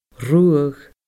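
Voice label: "ruadh", Scottish Gaelic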